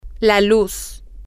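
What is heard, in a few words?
the light, Spanish, female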